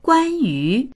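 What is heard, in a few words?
"guan1yu2", Mandarin Chinese; 关于; ～に関する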